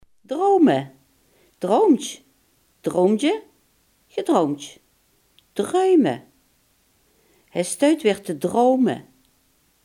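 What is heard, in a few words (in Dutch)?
"dromen" Limburgish dialect word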